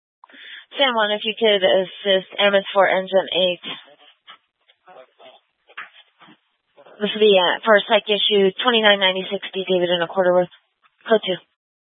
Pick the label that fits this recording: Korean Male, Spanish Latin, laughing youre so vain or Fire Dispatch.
Fire Dispatch